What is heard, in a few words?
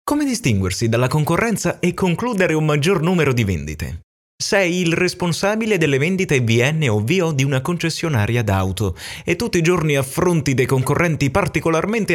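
Italian male voice over, upbeat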